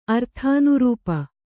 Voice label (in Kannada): "ಅರ್ಥಾನುರೂಪ", Kannada